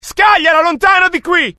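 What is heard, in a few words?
fear grenade, IT; mohaa; dialogue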